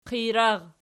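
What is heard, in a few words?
"qijrar" nominative, Archi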